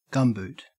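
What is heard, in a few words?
"gumboot" Australian English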